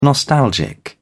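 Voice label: "nostalgic", British English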